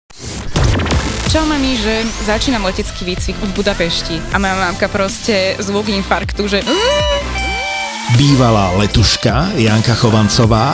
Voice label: Trailer; POĎME SPOLU LIETAŤ; Zapo; podcast